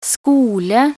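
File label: "skole", Norwegian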